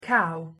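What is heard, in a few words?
"cow" pronunciation English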